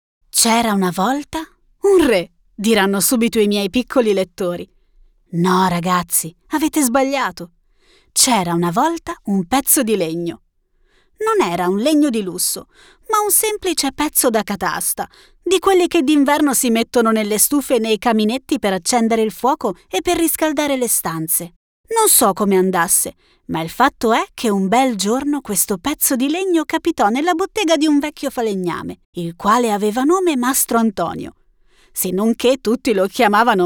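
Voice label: Italian, Audiobook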